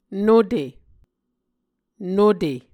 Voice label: "nute", Muscogee